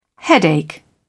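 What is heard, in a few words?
"headache", British English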